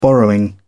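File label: "borrowing", British English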